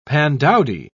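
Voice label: "pandowdy", British English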